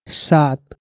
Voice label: "sat", Nepali